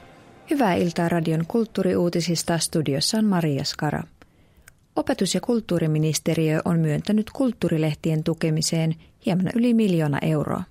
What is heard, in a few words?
news, finnisch